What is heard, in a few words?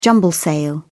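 "jumble-sale", British English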